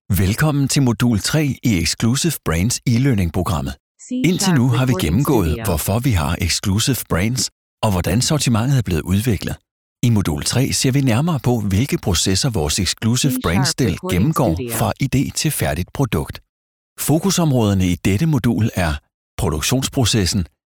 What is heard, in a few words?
Danish Male